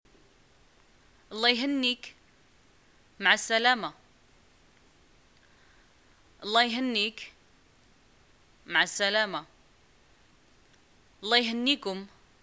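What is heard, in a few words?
greeting farewell, Moroccan Arabic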